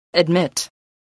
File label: "admit", English voice